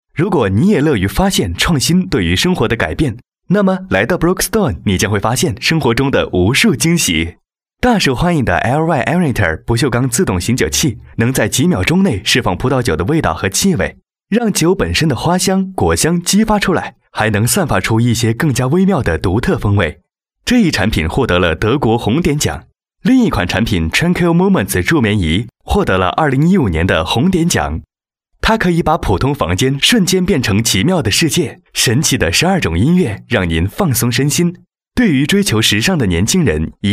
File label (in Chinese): 男 电子商务互联网; 高端广告; 【飞碟说】电子商务互联网